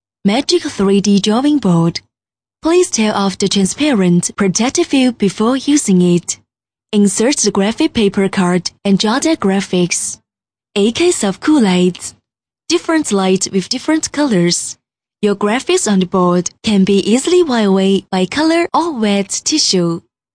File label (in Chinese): 英文 产品介绍, 女